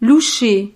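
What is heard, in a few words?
"loucher", French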